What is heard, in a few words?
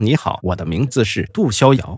male